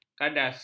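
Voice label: "kadas", Malay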